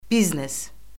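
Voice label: "biznes", Azerbaijani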